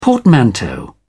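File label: "portmanteau", British English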